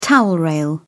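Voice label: "towel rail", British English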